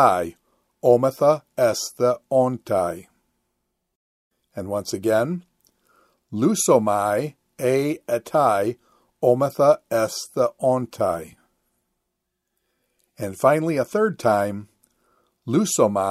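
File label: Quick Chant Future Middle Indicative of Luw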